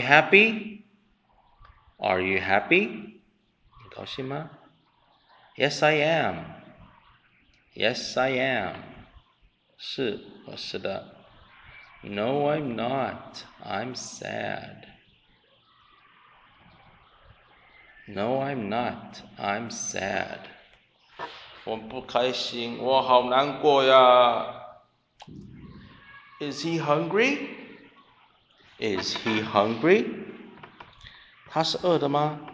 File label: conversation